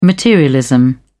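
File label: "materialism", British English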